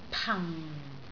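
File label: "pang4", Cantonese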